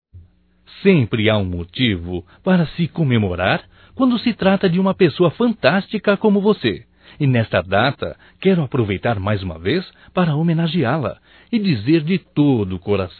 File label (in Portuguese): Dia Secretária Masculino, Telemensagens, Só Voz; DATAS COMEMORATIVAS